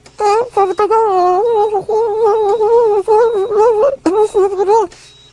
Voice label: mumbling

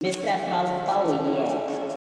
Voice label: yeah echo high pitch

